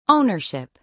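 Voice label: "ownership", American English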